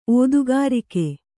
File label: "ಓದುಗಾರಿಕೆ", Kannada